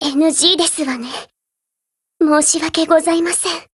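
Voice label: BREAK Japanese, Voice; GF Alarm; Desert Eagle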